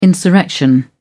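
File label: "insurrection", British English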